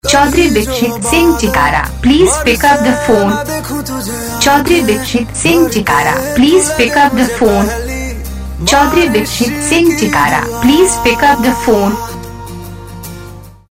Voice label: choudhary vikshit singh chikara pick up the phone saying; Your Name Ringtones A To Z Names